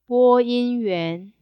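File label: boyinyuan, announcer, mandarin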